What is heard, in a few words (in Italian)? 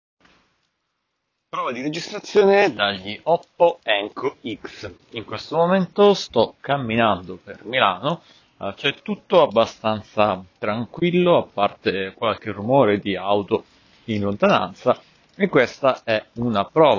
Test registrazione Oppo Enco X 2